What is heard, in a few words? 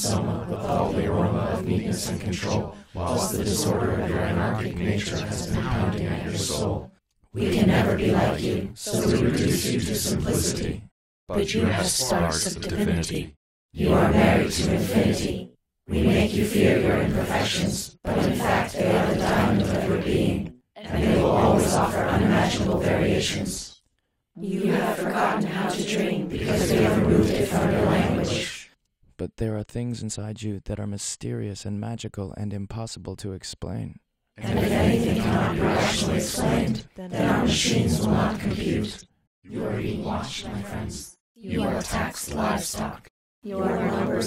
Voice Over